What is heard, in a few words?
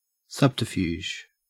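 "subterfuge", Australian English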